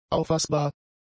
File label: "auffassbar", German; adjectives